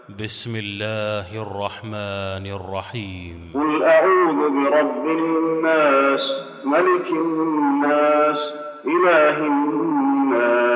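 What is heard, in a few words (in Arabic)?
الناس, تلاوة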